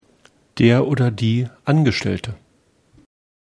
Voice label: "Angestellte", d

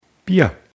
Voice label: "Bier", d

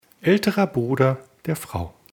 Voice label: "alt Bruder Frau", d

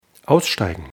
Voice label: "aussteigen", d

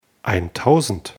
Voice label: "eintausend", d

